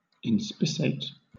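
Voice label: "inspissate", British English; pronunciations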